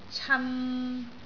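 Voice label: "can3", Cantonese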